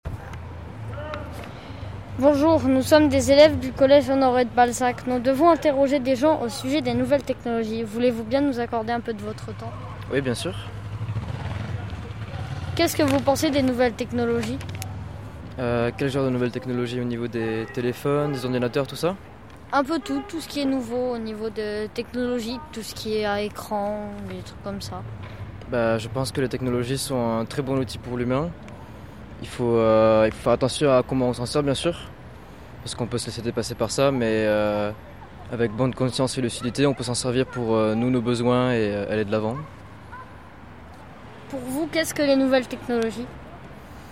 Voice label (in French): MICROTROTTOIR JEUNE; DEVANT SNA